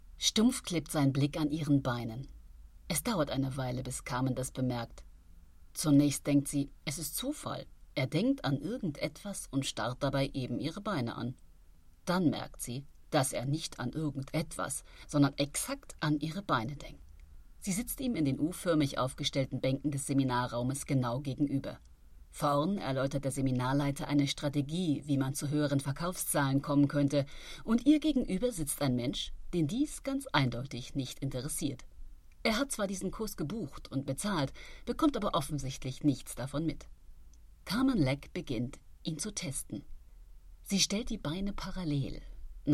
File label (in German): Hörbuch Belletristik; Suche impotenten Mann fürs Leben